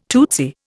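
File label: "topcoat", Indian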